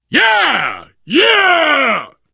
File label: taunt, biker; source engine; left 4 dead 2; survivor